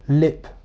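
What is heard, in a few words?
"lip", English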